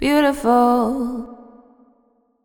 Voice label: "Beautiful", Vocals, Wet; GhostHack